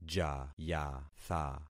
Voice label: "jayatha", concat syllables; Affixing experiment

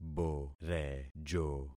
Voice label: "borejo", concat syllables; Affixing experiment

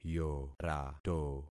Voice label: "yorato", concat syllables; Affixing experiment

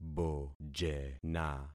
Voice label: "bojena", concat syllables; Affixing experiment

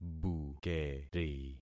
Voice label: "bukeri", concat syllables; Affixing experiment

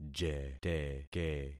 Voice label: "jeteke", concat syllables; Affixing experiment

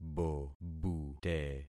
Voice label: "bobute", concat syllables; Affixing experiment

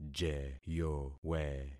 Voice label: "jeyowe", concat syllables; Affixing experiment

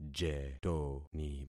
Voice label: "jetoni", concat syllables; Affixing experiment

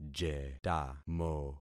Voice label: "jetamo", concat syllables; Affixing experiment

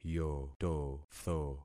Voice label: "yototho", concat syllables; Affixing experiment

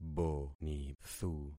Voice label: "bonithu", concat syllables; Affixing experiment

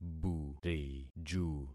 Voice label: "buriju", concat syllables; Affixing experiment

